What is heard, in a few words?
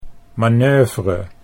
"manoeuvre", Dutch